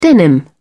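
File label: "denim", British English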